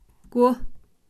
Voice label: tent, kham

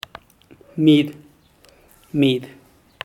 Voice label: "mid", zapoteco teotitlan; sucio

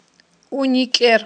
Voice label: "уникIер", Aghul

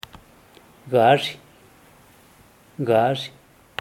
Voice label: "gaazhih", zapoteco teotitlan; burro

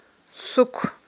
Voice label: "сук", Aghul

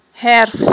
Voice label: "гьерф", Aghul

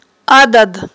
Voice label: "адад", aghul

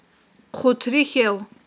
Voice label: "хъуттрихьел", aghul

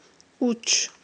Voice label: "уч", Aghul

